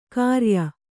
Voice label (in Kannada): "ಖರೆಯ", Kannada